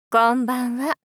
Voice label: こんばんは お姉さん×低音域

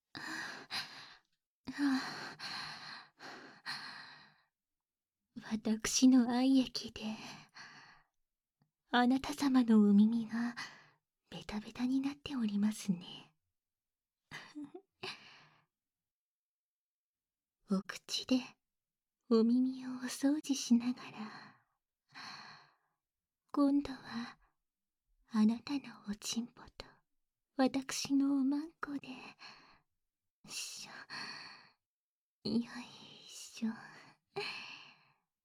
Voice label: 耳お掃除フェラ（バイノーラルマイク 効果音なし）

